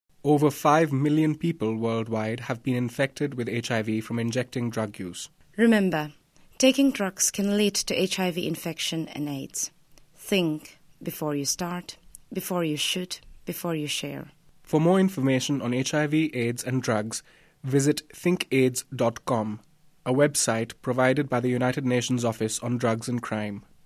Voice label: spot indian eng